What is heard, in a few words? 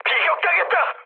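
Korean aircraft destroyed mood medium; War Thunder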